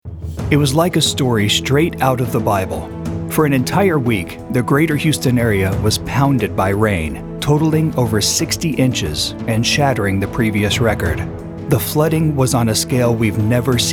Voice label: Narration